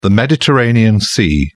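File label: "mediterranean", English, British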